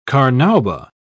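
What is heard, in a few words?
"carnauba", British English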